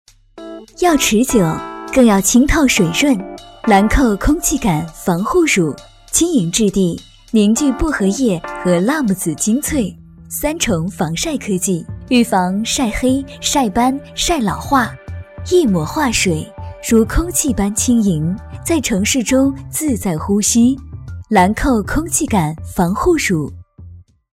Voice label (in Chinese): 化妆品广告; 兰蔻